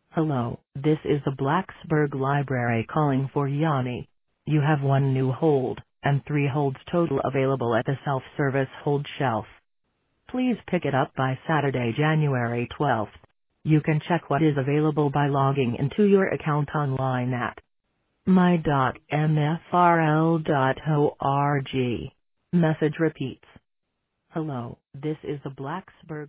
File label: Voicemail; Sample Hold Automation Phone Message